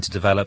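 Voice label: "to develop", SSB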